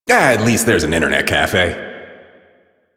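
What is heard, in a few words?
Citizen Male, English; Warframe; Mall; Citizens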